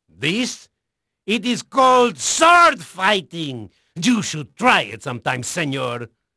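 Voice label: Spanish male, voice; Pirates Of The Caribbean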